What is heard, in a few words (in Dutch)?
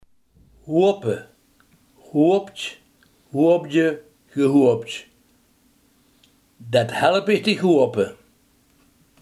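hopen, Limburgse gesproken woordenboeken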